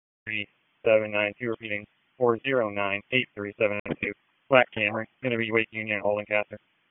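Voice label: Police Dispatch; Wake Forest; p25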